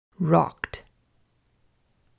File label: pronounce rokt en